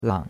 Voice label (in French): "lang3", chinois; Cours-de-Chinois